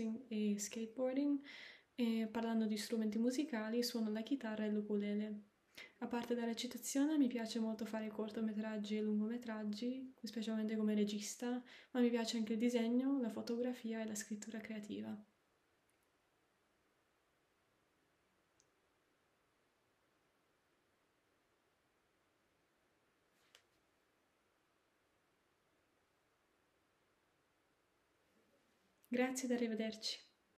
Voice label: ABOUT ME Italian

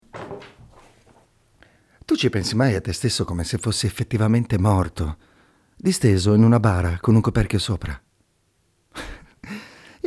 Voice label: Monologue

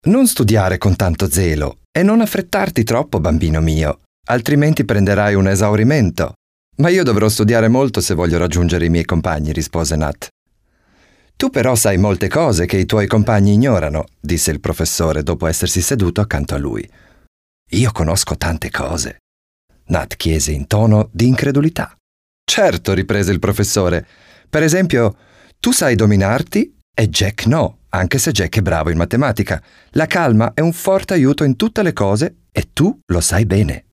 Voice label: Audiobook Italian

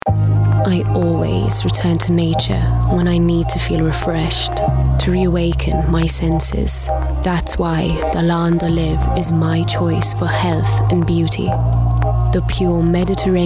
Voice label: English Voice Reel

